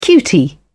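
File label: "cutie", British English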